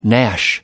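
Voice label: "gnash", British English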